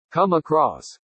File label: pronounce come across